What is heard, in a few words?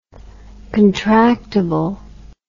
"contractable", English